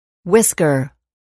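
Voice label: "whisker", English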